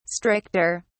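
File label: "stricter", English, British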